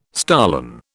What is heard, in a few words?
"stolon", British